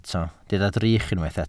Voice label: "drych", Welsh

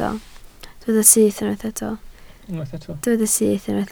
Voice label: "syth", Welsh

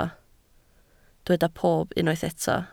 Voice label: "pob", Welsh

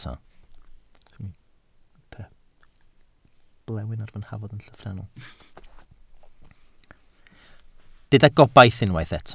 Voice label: "gobaith", Welsh

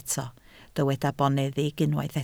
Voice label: "boneddig", Welsh

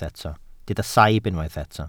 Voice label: "saib", Welsh

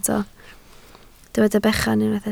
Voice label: "bechan", Welsh

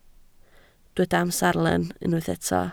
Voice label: "amserlen", Welsh

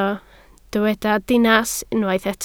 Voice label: "dinas", Welsh

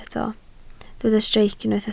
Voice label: "streic", Welsh

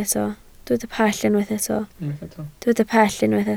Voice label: "pell", Welsh

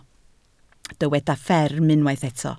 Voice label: "fferm", Welsh

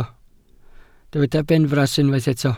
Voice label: "benfras", Welsh

